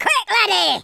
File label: "hurry", Scotsman; speech